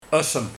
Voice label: "Ossem", Adem

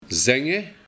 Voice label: "Zenge", de Buiting; Zeggen

